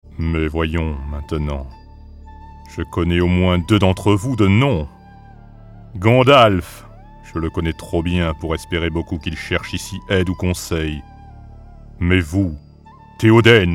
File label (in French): Voix grave seductrice manipulatrice; Saroumane; Seigneurs Anneaux